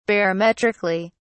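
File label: "barometrically", British English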